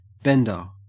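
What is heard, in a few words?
"bender", Australian English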